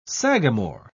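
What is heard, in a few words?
"sagamore", British English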